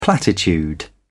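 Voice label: "platitude", British English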